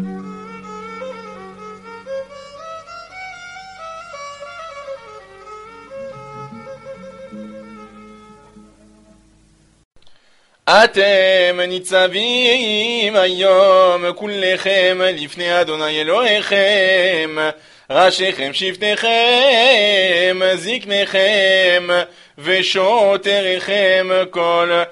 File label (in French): Paracha Nitsavim 1e montee algerien; devarim deuteronome